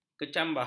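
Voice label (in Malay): "kecambah", Malay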